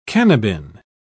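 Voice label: "cannabin", British English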